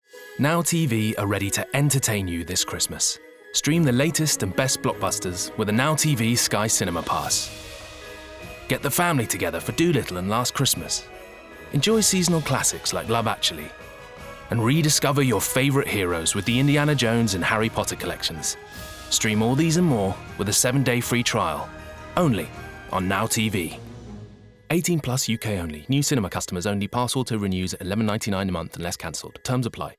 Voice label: Radio, Lower Music; Now TV